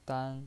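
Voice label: "dan", Mandarin Chinese pinyin voice, first tone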